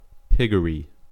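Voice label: "piggery", American English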